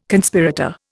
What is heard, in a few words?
"Conspirator", Indian